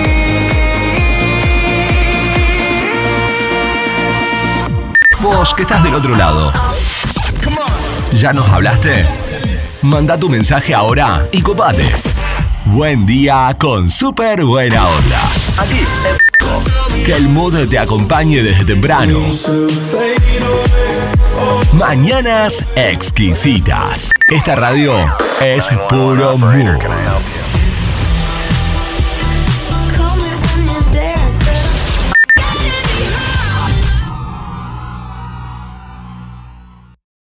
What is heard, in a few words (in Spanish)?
Arte Cool RioPlatense Primavera; A dos voces y acento neutro!; primavera 2025